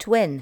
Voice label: "twin", L2 English